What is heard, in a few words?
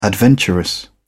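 "adventurous", British English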